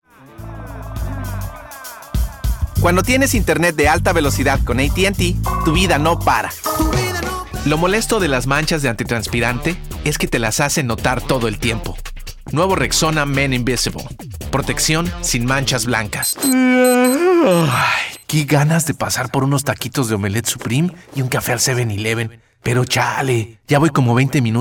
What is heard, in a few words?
Spanish, males